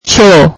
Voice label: swahili toilet

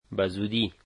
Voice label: soon, Dari

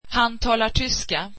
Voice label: swedish he speaks German

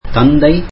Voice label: tamil father

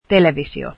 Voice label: television, finnish

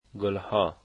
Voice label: flowers, Dari

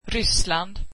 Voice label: swedish Russia

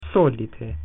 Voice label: latin usually

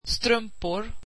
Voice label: swedish socks

